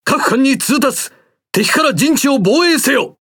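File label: Speech Quick Commands Ally Base, Japanese; World Of Warships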